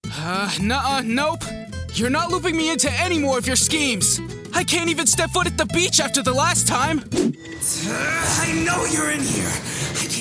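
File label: Character Reel, voiceover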